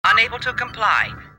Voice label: unable to comply, computer voice